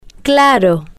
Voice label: "claro", spanish

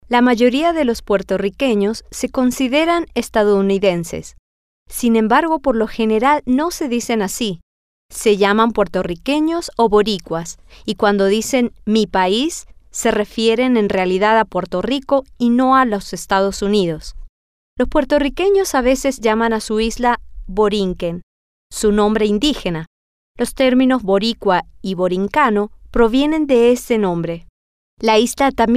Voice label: Spanish; la Mayoria De Los Puertorriquenos

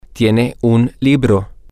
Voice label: you have a book, spanish, M

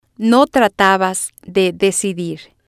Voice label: "no tratabas de decidir", spanish

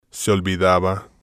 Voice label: "se olvidaba", spanish

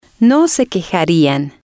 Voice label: "no se quejarian", spanish

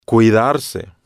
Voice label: "cuidarse", Spanish

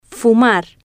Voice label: "fumar", spanish

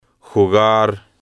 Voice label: "jugar", Spanish

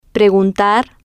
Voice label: "preguntar", Spanish

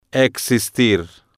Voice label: "existir", Spanish

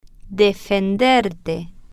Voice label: "defenderte", spanish

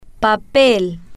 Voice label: "papel", spanish

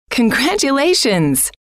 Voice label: congratulations, F

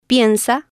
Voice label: "piensa", spanish

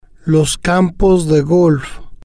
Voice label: "los Campos De Golf", spanish

